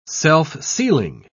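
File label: "self-sealing", British English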